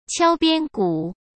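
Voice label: "敲边鼓", Mandarin Chinese; chinese-flashcards; hsk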